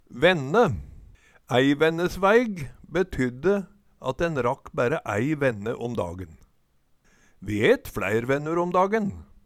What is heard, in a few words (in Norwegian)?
venne, Numedalsmål